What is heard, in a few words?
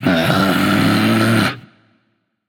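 "seriously", male; smite; voicepack; olorun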